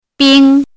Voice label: "bing1", pinyin

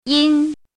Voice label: "yin1", pinyin

